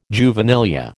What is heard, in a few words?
"juvenilia", American